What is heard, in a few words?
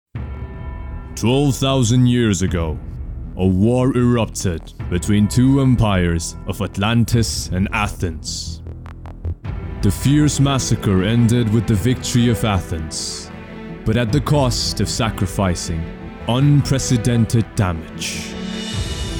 英文美式英文 Video Game